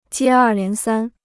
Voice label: "接二连三", Chinese